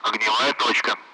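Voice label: Speech, Russian; Allied Flight; target-bunker; DCS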